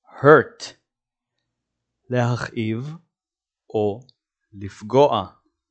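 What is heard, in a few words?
"hurt" English, "להכאיב לפגוע" Hebrew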